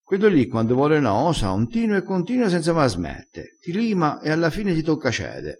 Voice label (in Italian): "lima", crespinese dialettale